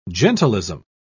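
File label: "gentilism", British English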